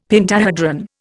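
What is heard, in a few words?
"pentahedron", Indian English; Dictionary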